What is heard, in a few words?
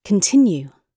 continue, gb